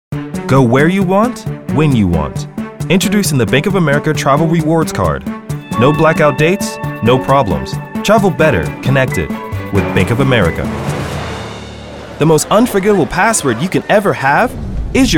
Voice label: commercial